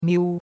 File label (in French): "miu1", chinois; Cours de Chinois